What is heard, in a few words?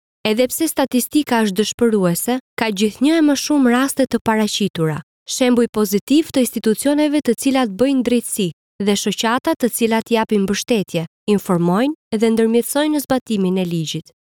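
Albanian female Informative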